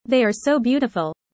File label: fast